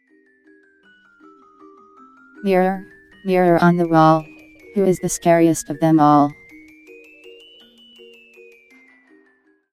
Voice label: Mirror, mirror on the wall. Who is the scariest of them all female